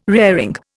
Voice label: "raring", Indian